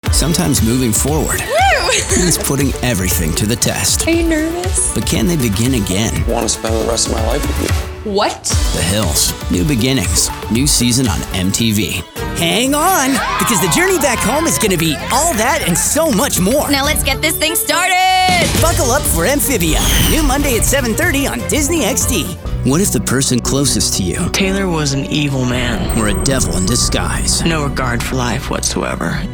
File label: PROMO